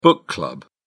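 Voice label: "book club", British English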